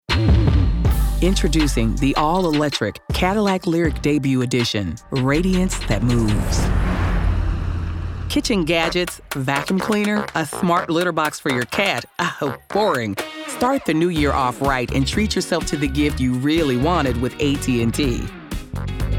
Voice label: Commercial